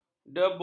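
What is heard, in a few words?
"dabus", Malay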